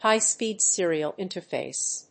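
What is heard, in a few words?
"HIGH-SPEED SERIAL INTERFACE", English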